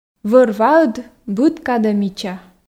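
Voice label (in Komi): вӧр-ваыд быд кадӧ мича, Komi, female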